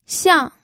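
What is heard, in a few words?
"xiang4", Mandarin Chinese pinyin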